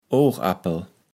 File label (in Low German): "Ogappel", Platt